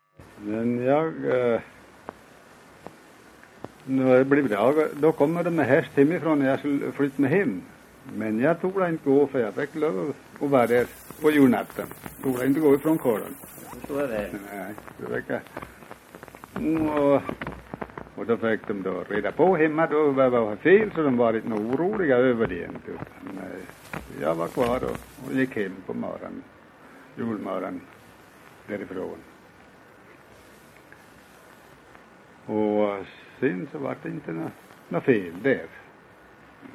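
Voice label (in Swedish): Järbo Gästrikland, dialekter; Folkminnen; Svenska sagor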